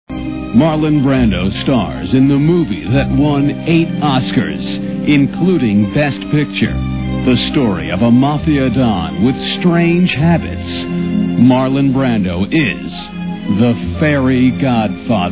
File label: Commercials; Fairy Godfather; Freekin Weird Audio